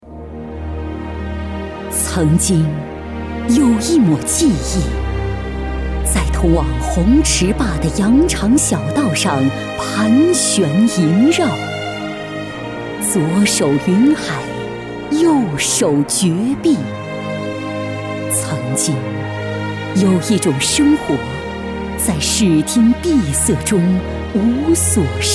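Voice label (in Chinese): 专题大气恢弘; 专题红池坝大气恢弘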